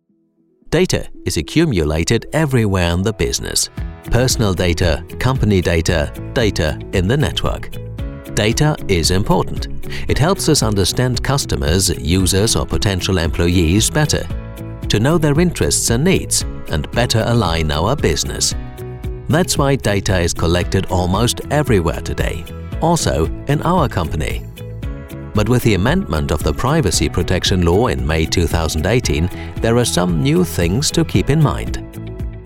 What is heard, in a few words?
sprecher englisch, Datenschutz